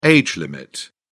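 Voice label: "age limit", British English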